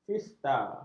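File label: "fiesta", Malay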